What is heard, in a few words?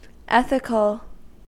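"ethical", US English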